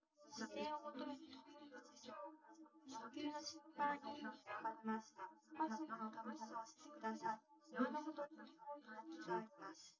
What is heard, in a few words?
female, live rec